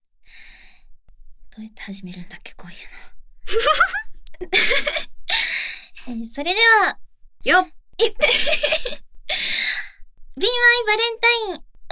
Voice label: FreeTalk, ASMR